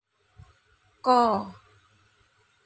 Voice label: Suara ka, aksara, Basa Jawa